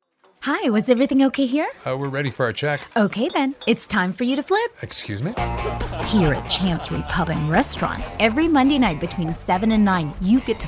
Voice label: Commercial; Landrum Arts LA